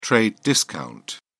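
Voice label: "trade discount", English, British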